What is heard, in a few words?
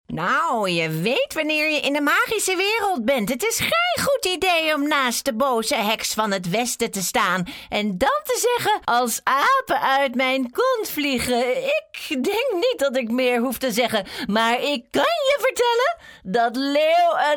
dutch female game characters